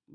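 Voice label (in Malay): "balau", Malay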